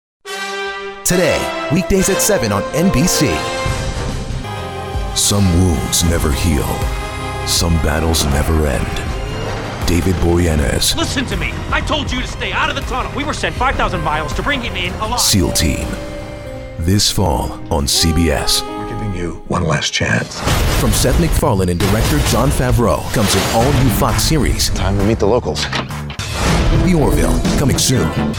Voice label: promo male

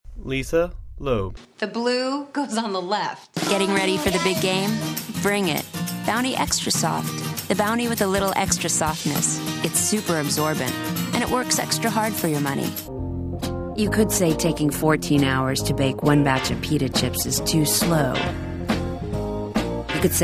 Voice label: commercial, commercial women

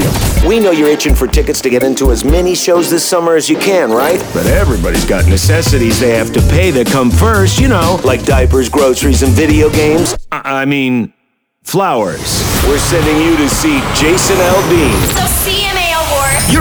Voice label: radio imaging male country

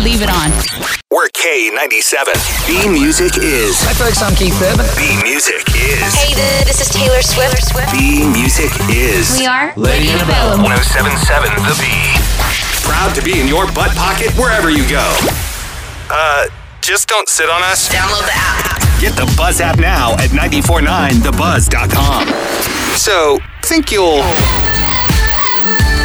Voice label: radio country; radio imaging

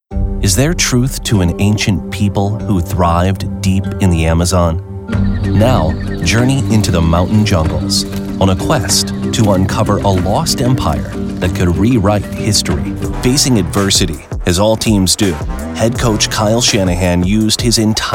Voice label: narration men broadcast

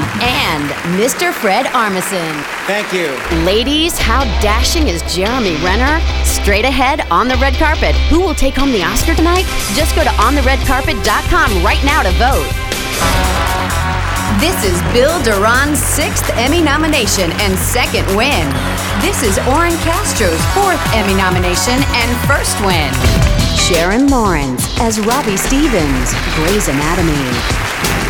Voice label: live announce; show and stadium announcers

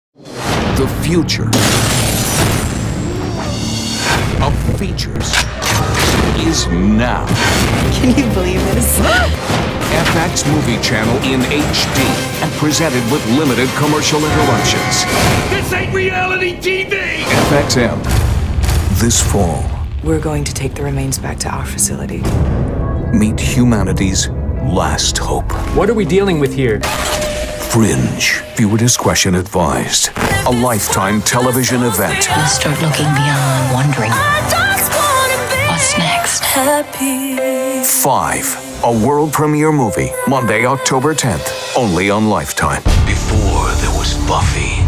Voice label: promos dramatic, men

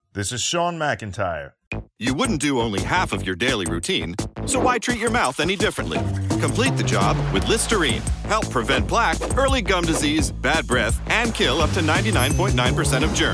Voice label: commercial men

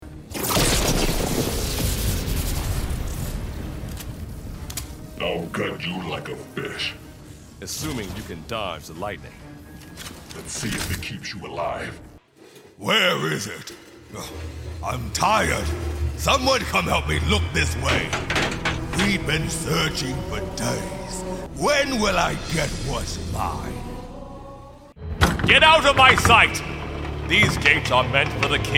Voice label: animation men interactive

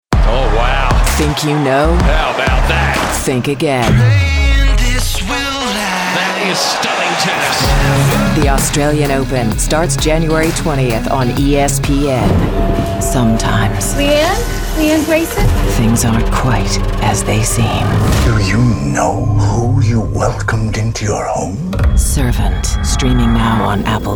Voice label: promo women

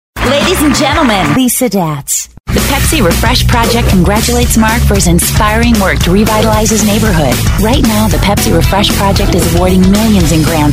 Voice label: commercial, commercial women

